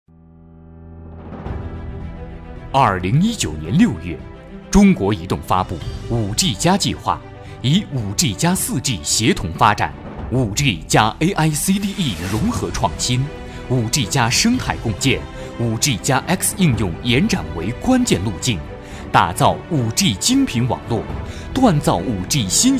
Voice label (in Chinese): 男 宣传; 千行百业